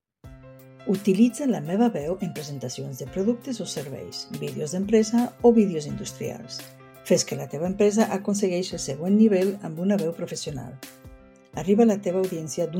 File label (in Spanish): LOCU CATALÁN